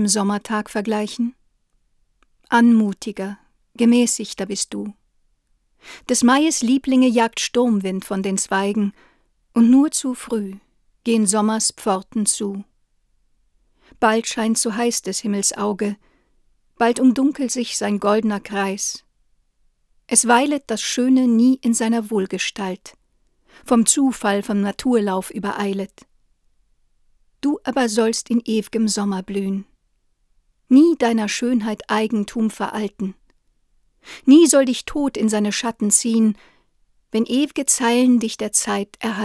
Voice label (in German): neumann u 87 ai sprache